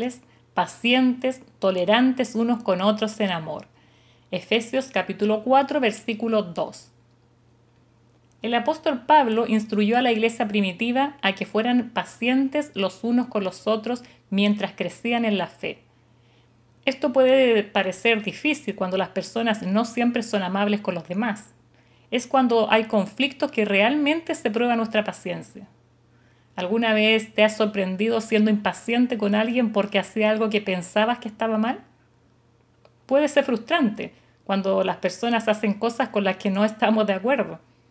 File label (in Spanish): devocional